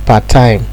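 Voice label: "part time", Nigerian Pidgin, male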